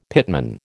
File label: "pitman", American